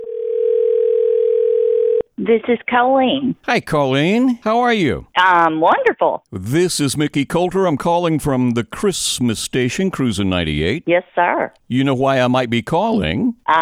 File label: Furniture Promo; M&S Furniture Promo; Platinum; Winner